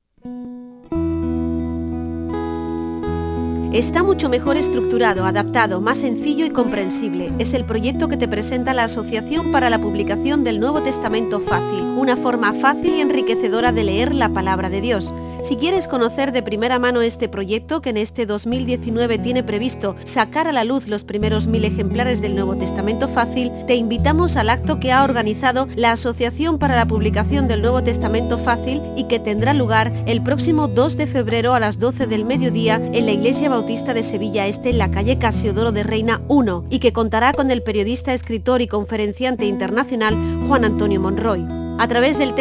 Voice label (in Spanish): cuña radio local